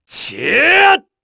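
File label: Vox Casting, korean; Lusikiel; kingsraid; voices heroes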